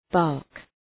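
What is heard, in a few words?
"bark", English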